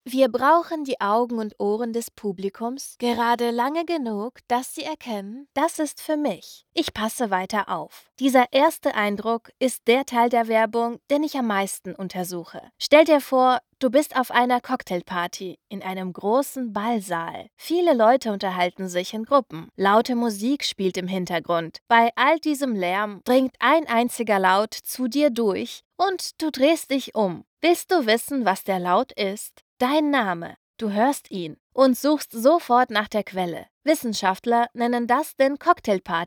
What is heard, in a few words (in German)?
Hoerbuch; Callout Demo